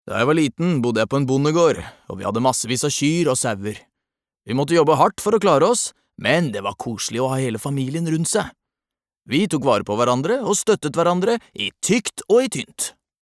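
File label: Norwegian dub man, male; norsk; mann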